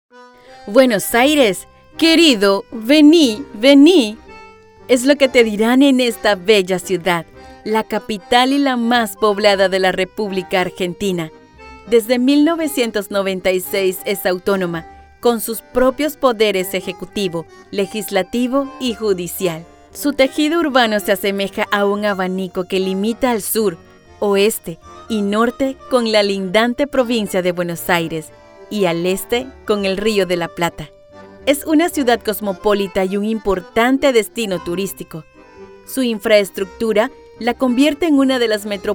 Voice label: narrativa

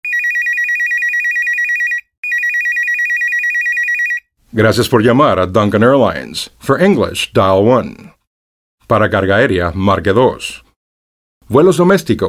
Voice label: ivr espanol

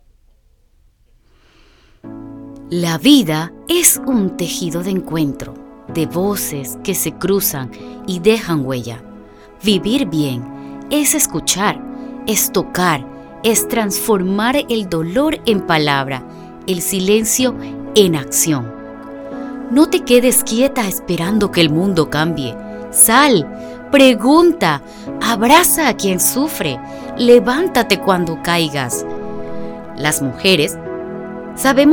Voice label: narra

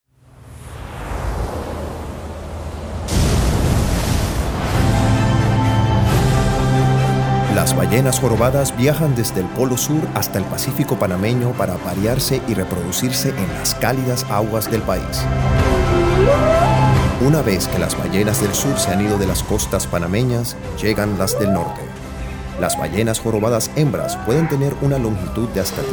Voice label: narr esp

